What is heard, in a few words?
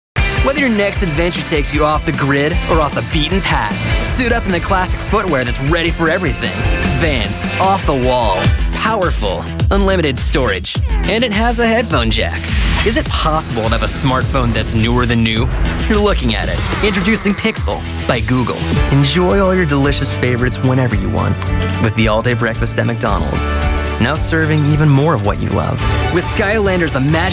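COMMERCIAL, Youthful Upbeat Millennial Voice Actor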